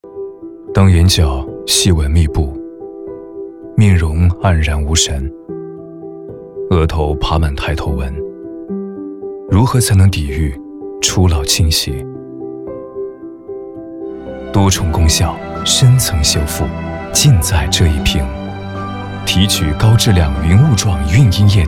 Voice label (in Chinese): 高端男洋气时尚; 范佳乐啤酒